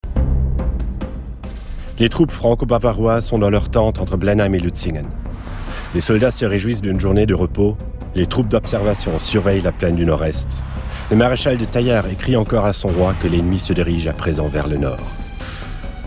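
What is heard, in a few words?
fr elearning, French; sprecher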